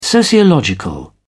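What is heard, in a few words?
"sociological", British English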